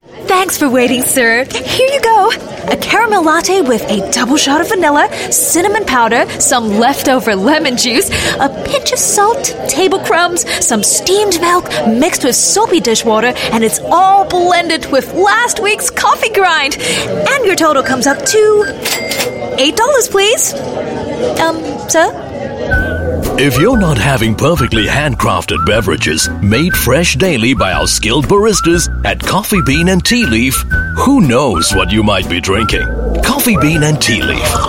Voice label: Asian English F; CoffeeBean

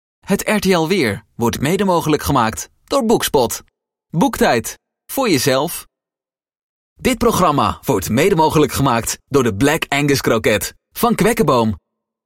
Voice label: Dutch Voice, Male

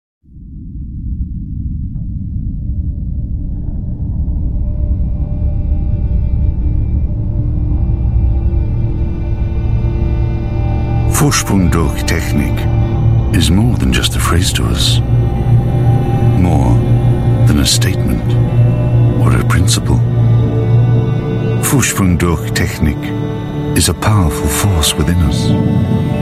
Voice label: UK English; Audi Video

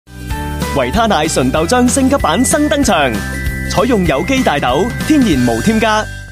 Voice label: Cantonese; Vitasoy

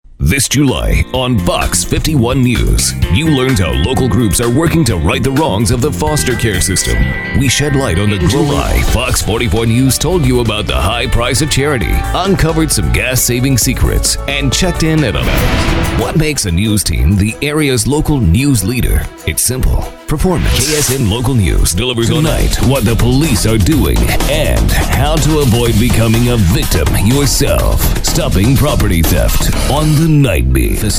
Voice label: US English Male Station Imaging

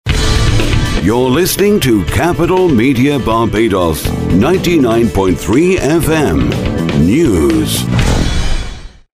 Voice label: UK English Radio Idents; Male

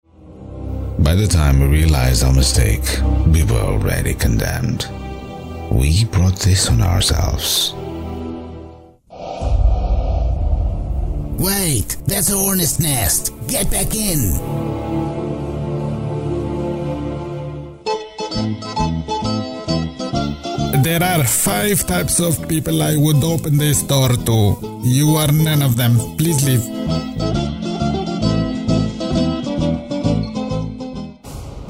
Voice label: Portuguese English Male Characters

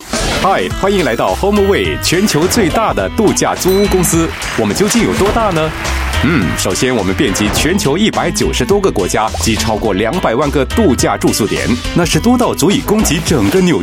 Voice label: Mandarin Taiwan TVC, Mandarin Taiwan Male; HomeAway

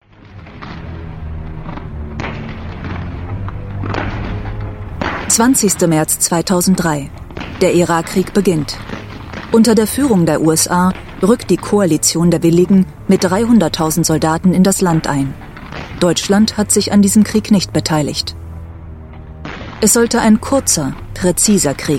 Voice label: German documentary sample

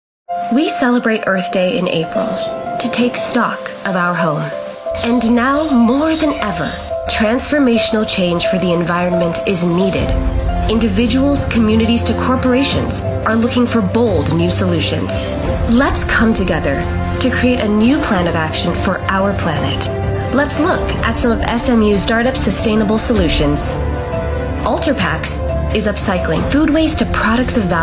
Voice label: Mid-Atlantic English; SMU Earth Day

